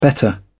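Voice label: "better", British English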